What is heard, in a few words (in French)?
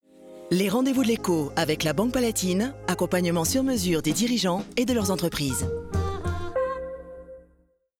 Billboard Droit rassurant; BANQUE PALATINE 2018